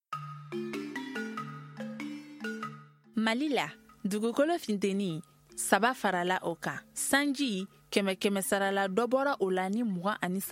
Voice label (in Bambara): magazine bamanankan